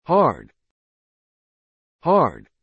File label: "hard", English